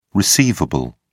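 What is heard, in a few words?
"receivable", British English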